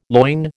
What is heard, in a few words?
"loin", American